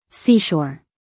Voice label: "seashore", US female; everyone-can-use-english